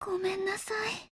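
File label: low mood, Japanese; CAR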